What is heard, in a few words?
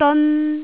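"deon1", Jyutping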